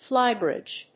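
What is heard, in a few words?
"Flybridge", English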